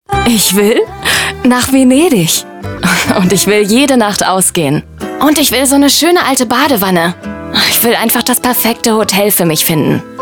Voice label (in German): nachgesprochen werbung